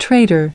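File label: "Traitor" US English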